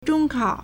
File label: "zhong1 kao3", Chinese